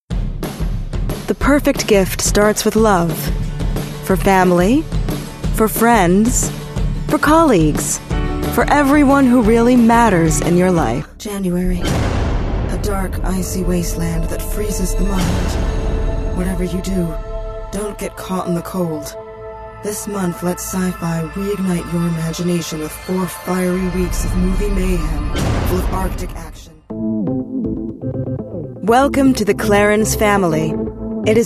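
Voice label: African American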